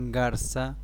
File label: Heron spanish